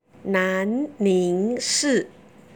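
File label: "nanningshi", Chinese; 南宁市; Nán níing shì; 广西壮族自治区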